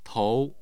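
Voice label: "tou2", Mandarin Chinese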